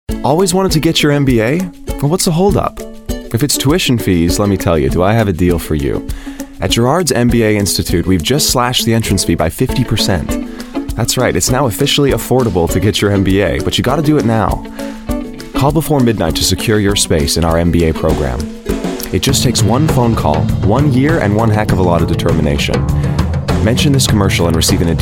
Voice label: english american voice over male narrator